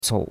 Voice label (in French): "cou3", chinois; Cours de Chinois